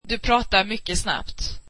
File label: swedish you speak very fast